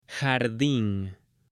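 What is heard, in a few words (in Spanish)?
"JARDIN", male; LUGARES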